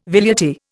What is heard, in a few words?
"velleity", Indian